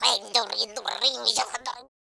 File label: angry, Russki; speech